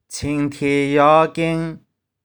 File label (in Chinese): "ching1thie1yau3king3"